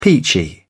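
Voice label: "peachy", British English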